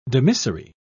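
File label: "dimissory", British English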